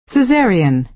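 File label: "caesarean", American English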